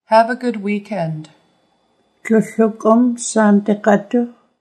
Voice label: Have a good weekend, Nuu-chah-nulth